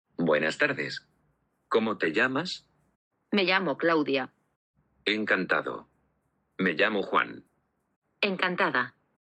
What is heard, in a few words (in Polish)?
dialog